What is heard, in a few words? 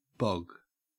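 "bog", Australian English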